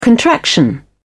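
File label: "contraction", British English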